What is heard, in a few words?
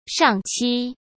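"上期" Mandarin Chinese; chinese-flashcards; hsk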